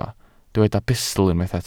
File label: "bustl", welsh